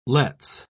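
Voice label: "let's", British English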